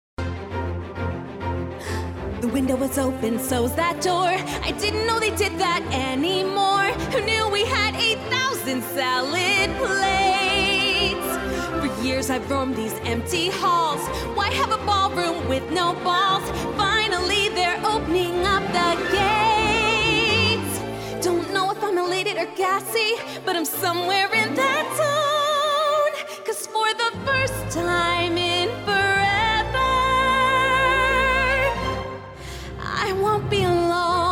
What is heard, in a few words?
Cheery Disney Voice